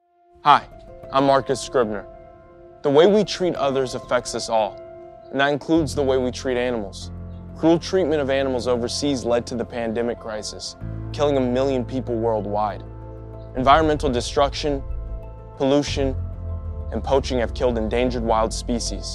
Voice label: Radio; Marcus Scribner

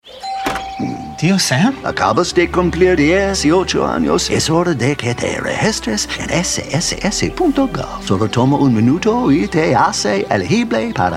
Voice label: SPANISH RADIO MIX; Reingold; UNCLE SAM

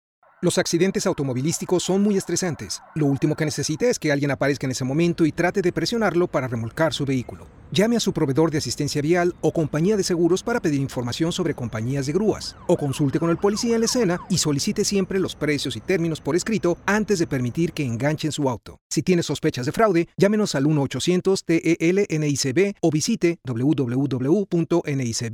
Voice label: Towing Spanish; NICB

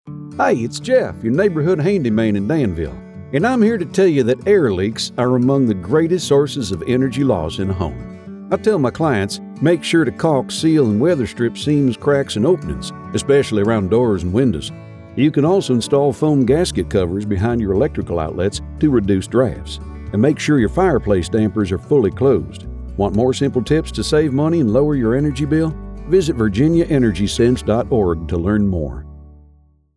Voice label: Radio; Southside VA Danville

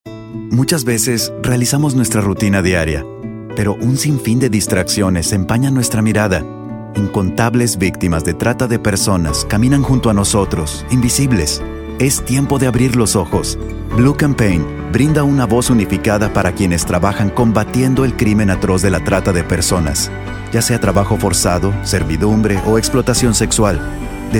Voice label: Radio Spanish; DHS; Blue Campaign

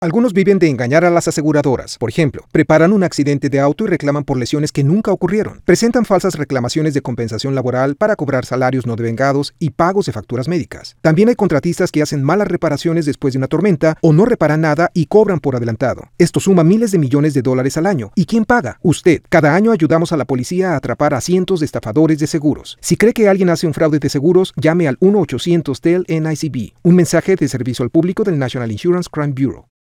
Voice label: Insurance Fraud Radio Spanish; NICB

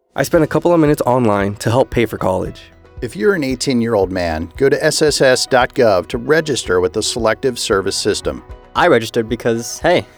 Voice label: Testimonial Radio

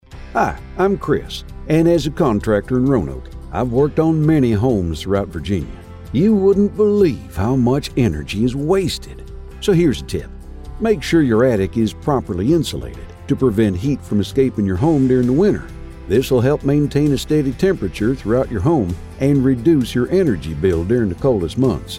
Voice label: Radio; Southwest VA Roanoke

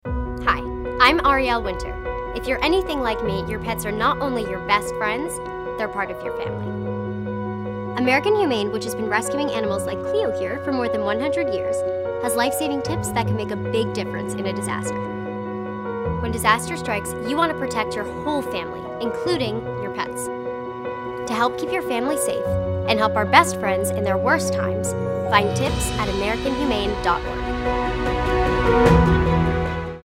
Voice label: PSA

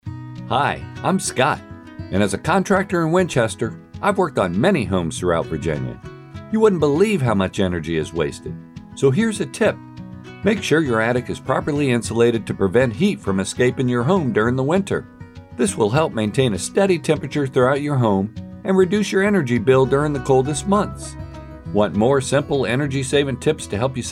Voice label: Radio; Shenandoah Winchester

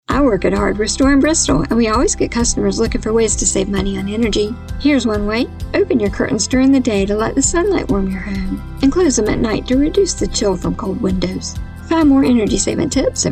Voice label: Radio; Southwest VA Bristol

